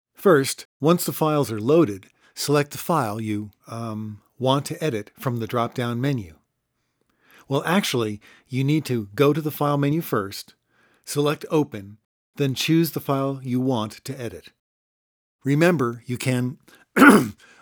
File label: narration